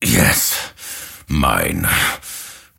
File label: lasthit pain; dota; grimstroke